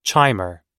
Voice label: "chimer", pronounce